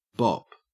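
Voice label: "bop", Australian English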